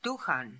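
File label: "Dukhan", pronunciations; Fourth Way; Beelzebub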